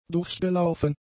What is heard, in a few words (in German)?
"durchgelaufen", German; adjectives